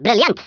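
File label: "brilliant", Afrikaans accent; Speech; International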